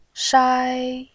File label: "shai1", voice